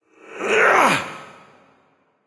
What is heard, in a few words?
grunt lift; smite; hebo